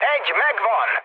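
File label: player killed enemy, mood med, Hungarian; speech; War Thunder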